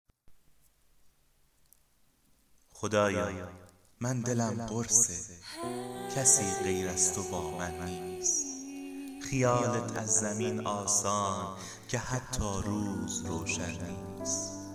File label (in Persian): دکلمه عاشقانه; پادکست صوتی من دلم قرصه